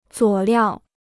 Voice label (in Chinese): "佐料", Chinese